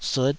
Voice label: "sut5", taiwanese Syllables